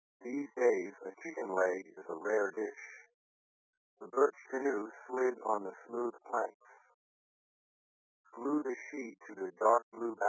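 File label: pshift male, shift of frequencies above 1500 Hz with a ratio of -2 semitones, after compression and decompression by the VLC codec at 8000 bps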